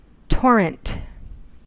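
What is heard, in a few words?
"torrent", US English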